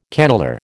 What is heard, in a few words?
"cannelure", American